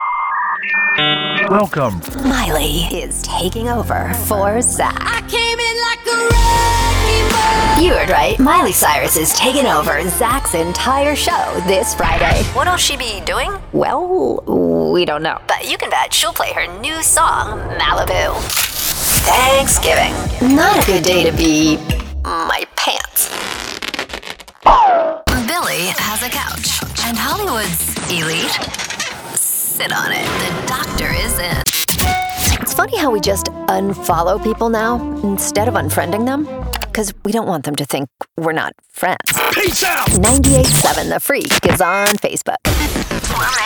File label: Radio Imaging CHR